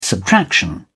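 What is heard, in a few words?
"subtraction", British English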